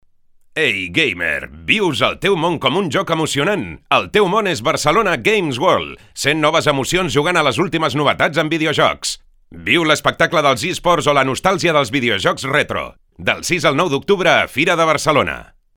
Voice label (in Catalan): ANUNCI RADIO Catala